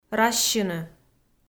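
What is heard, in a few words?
"разсьыны", Komi, female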